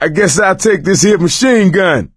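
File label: take submachine gun, voice, male; Left 4 Dead 2; coach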